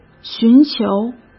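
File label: "寻求", Mandarin Chinese; hsk; chinese-flashcards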